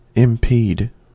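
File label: "impede", US English; pronunciations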